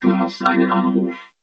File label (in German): du hast einen Anruf, Klingelton